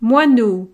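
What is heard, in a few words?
"moineau", French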